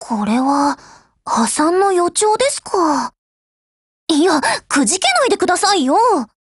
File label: RETREAT JP